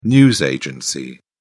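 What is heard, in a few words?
"news agency", British English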